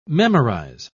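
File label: "memorise", British English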